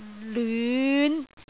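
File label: "lyun5", Cantonese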